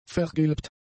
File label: "vergilbt", German; adjectives